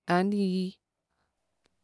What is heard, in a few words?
"Ali", دختر; دختر که میگه علی